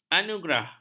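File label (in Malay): "anugerah", Malay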